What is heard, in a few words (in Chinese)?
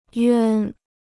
"冤", Chinese